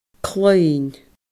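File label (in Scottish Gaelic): "cluinn", Scottish Gaelic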